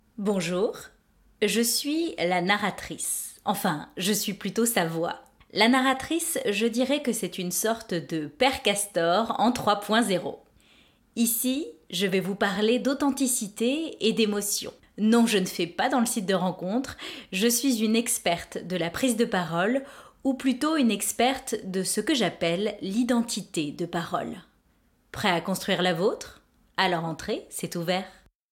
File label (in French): voix off la narratrice